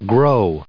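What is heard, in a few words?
"grow", US English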